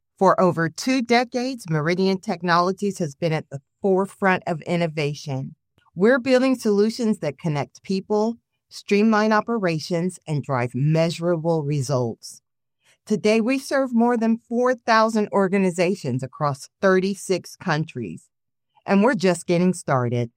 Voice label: CORPORATE NARRATION